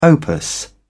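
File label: "opus", British English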